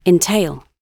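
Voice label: "entail" British English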